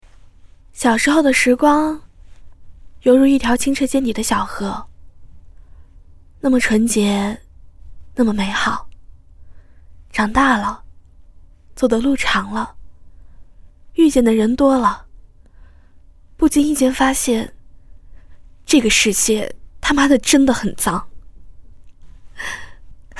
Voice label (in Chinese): 旁白温情